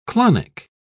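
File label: "clonic", British English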